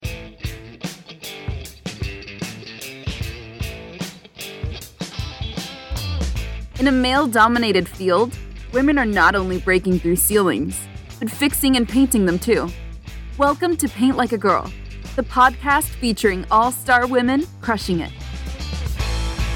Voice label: english american podcasts